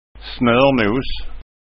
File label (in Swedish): "snornos", Skånska